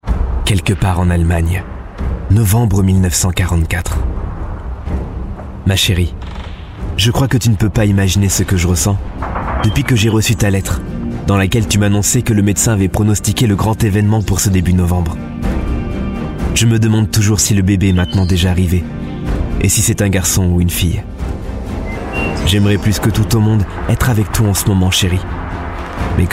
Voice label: french voice over male documentary